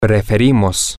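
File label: we Prefer, Spanish, male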